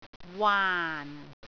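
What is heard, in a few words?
"waan4", Cantonese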